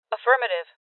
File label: Confirmation Female